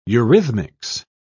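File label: "eurhythmics", British English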